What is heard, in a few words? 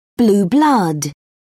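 "blue-blood", British English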